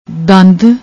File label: "dandd", Punjabi; ਦੰਦ